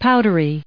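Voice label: "powdery", us English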